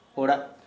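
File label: Santali male house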